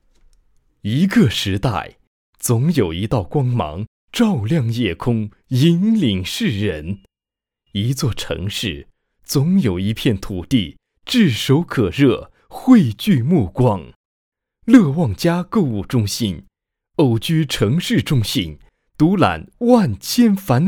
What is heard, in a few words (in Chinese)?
大气厚重